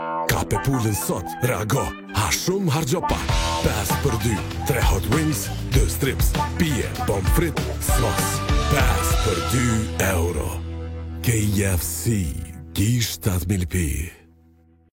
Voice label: Arnavutluk Erkek; arnavutca seslendirme

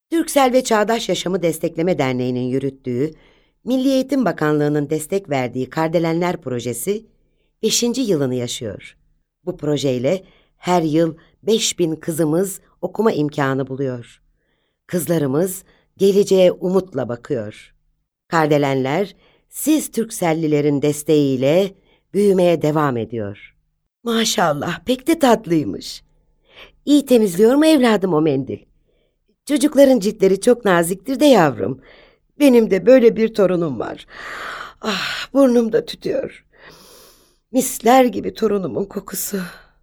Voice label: turkce seslendirme, kadin sesler

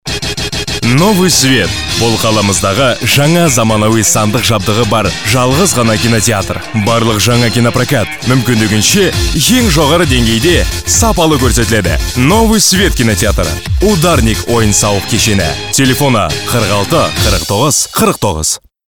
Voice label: Kazakca Erkek, Erkek Ses; seslendirme

